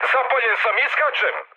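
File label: Serbian on fire mood med, speech; War Thunder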